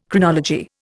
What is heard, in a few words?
"Chronology", Indian